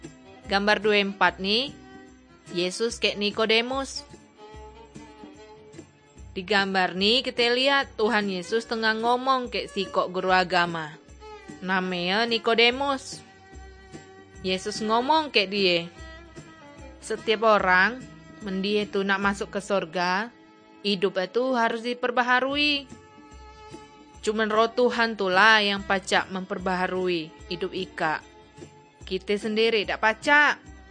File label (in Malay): kabar baik good news malay bangka